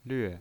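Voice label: "lve", pinyin voice, Mandarin Chinese, fourth tone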